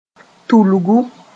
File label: "tulgu", moore